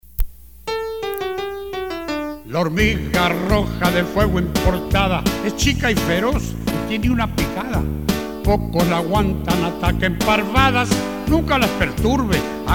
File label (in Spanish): Spanish; PSAs